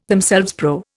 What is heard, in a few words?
"themselves", Indian; Dictionary